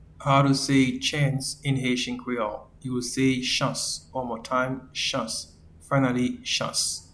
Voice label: Chance in Haitian Creole Chans